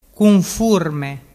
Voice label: "cunfurme", dialettu

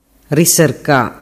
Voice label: "riserca", dialettu

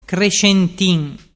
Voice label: "crescentin", dialettu

